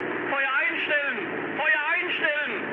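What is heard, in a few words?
German player friendly fire mood high, speech; War Thunder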